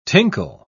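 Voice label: "tinkle", English word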